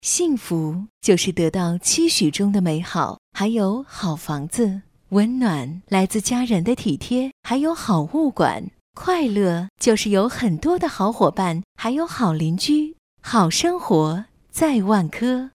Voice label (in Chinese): 广告 地产 温柔; 万科